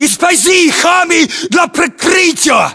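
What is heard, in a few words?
order cover humvee, battlechatter, Russian; localized english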